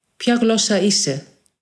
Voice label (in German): griechisch